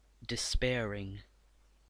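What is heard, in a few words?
"despairing", English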